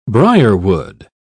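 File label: "briarwood", English, British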